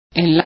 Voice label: downlink, spanish